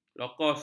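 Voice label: "lokos", Malay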